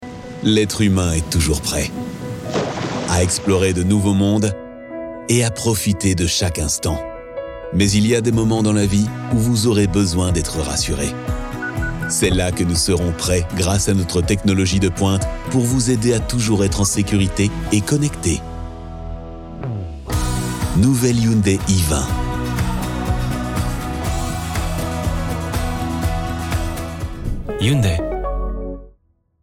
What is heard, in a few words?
male french tv commercial; hyundai